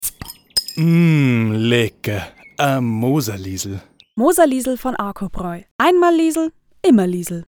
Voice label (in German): Werbung Reminder; Arcobraeu